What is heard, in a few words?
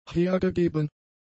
"hergegeben", German; adjectives